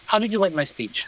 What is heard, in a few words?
Voice Acting, es